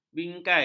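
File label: "bingkai", Malay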